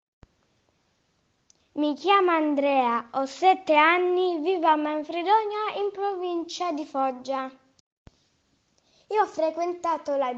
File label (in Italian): Puglia Manfredonia, bambini